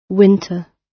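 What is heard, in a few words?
"winter", British English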